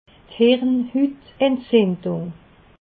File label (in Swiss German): "hirnhutentzindung", alsace